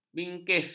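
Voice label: "bingkis", Malay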